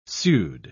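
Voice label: "pseud-", British English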